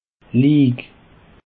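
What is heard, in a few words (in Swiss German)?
"lig", Alsatian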